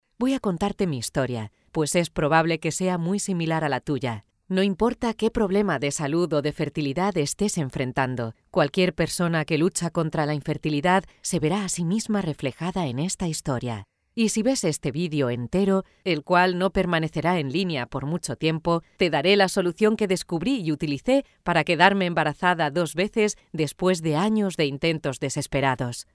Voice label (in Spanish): narracion cercana